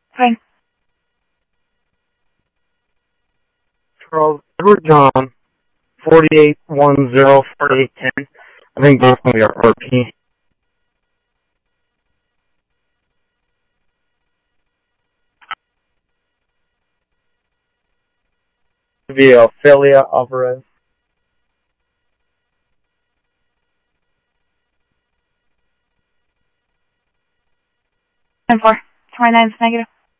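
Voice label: Mesa Police Department simulcast, scanner audio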